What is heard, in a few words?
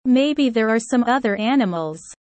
low